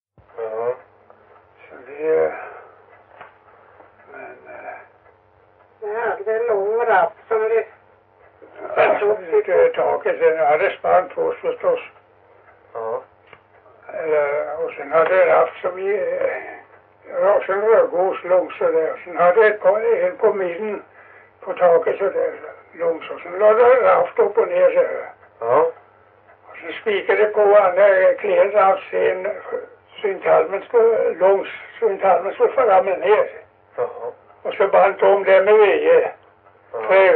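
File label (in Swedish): Stenåsa Öland, svenska sagor dialekter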